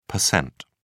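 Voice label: "pct", British English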